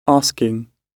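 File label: "asking", British English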